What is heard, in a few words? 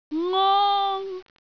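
"ngong1", Cantonese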